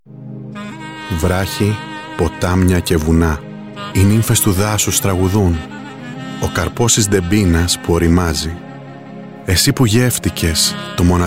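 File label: Greek; Male; 20s-40s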